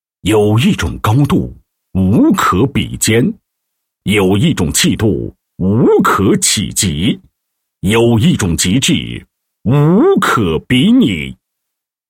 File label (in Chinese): 男 房地产 宣传介绍; 某大厦宣传介绍